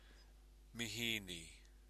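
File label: "mihini", Maori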